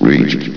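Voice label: "reached", male synthesized announcer voice; blizzard; hlvox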